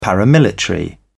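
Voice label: "paramilitary", British English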